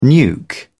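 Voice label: "nuke", British English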